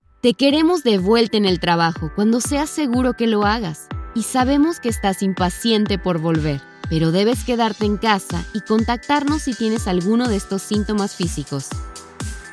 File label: spanish latin american corporate videos